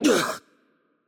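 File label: Brazilian Portuguese hurt light voice; bloom minigame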